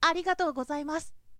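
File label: boy, "arigatogozaimasu"; shonen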